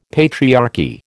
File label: "patriarchy", American